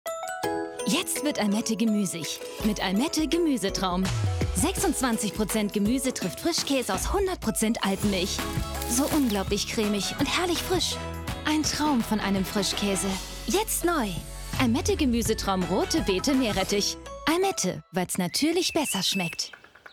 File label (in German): Werbung Rote Beete Meerrettich; Almette